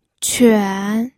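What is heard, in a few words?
"quan", Mandarin Chinese, third tone; pinyin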